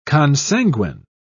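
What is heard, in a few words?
"consanguine", British English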